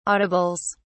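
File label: "audibles", British English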